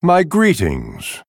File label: Male Night Elf Bark; Malfurion Stormrage